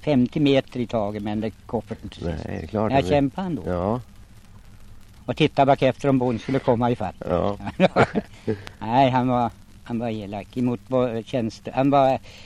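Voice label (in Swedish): Västerås-Barkarö Västmanland, dialekter; Folkminnen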